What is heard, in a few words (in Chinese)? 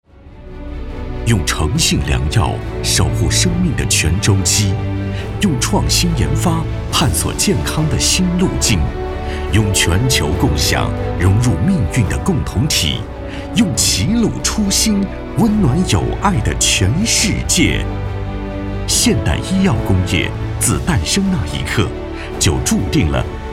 男 专题 企业 洋气; 齐鲁制药